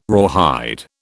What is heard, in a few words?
"rawhide", British